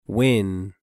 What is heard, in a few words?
"I" "win"